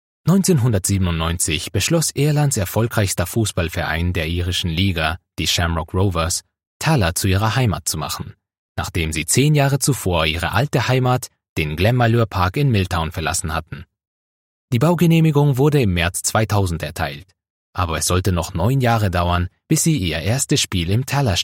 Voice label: german narration, German voice over